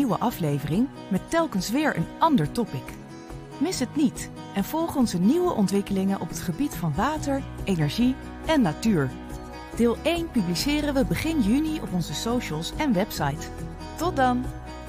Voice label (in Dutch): Trailer serie